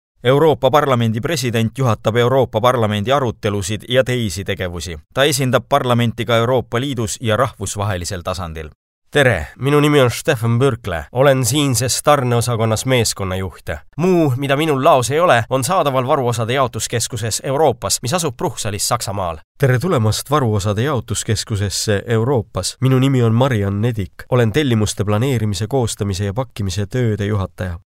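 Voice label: Estonia, female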